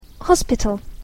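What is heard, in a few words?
"hospital", English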